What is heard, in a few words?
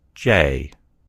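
"jay", American English; gcompris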